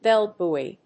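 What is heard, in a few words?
"bell buoy", English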